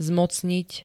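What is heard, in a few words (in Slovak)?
"zmocniť", Slovak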